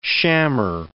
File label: "shammer", English